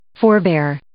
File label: "forebear", English